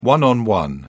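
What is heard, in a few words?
"one-on-one", English, British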